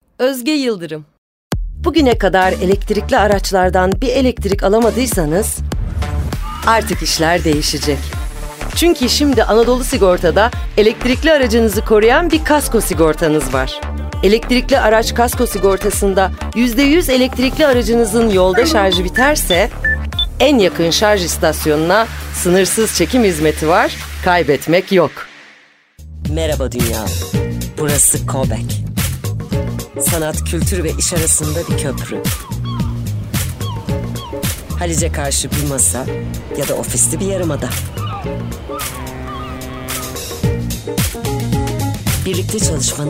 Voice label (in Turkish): turkce kadin